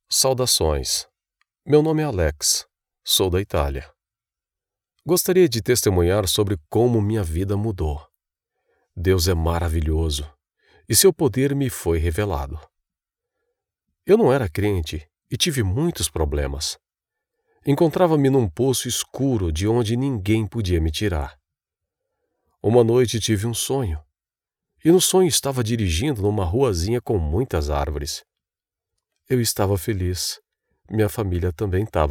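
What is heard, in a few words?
doblaje, Portuguese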